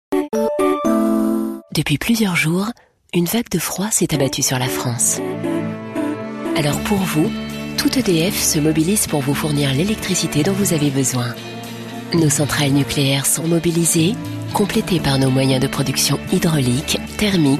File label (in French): radio pub spot